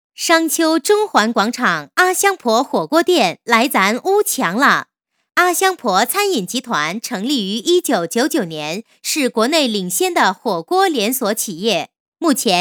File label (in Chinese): 女53 广告, 国语女声配音; 阿香婆; 省台女配